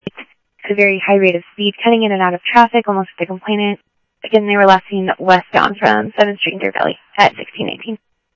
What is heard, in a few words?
Phoenix PD, scanner audio